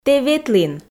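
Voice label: "тэ ветлін", Komi, female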